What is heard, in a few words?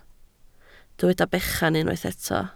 "bechan", Welsh